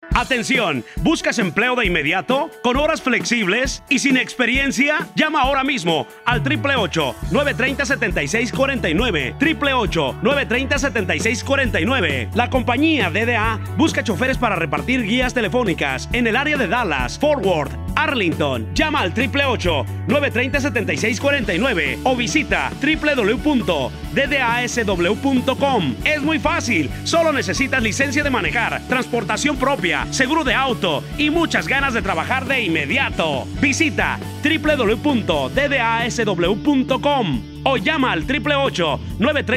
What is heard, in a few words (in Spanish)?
Span; Dallas